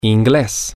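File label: english, spanish, male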